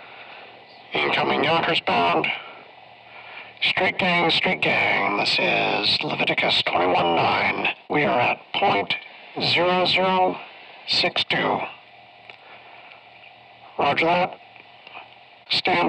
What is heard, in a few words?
Science Fiction Radio Chatter Male